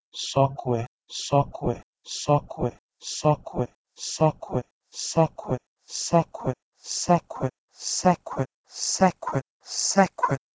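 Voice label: Proto-Indo-European "sokwe" from Proto-Indo-European "sekwe"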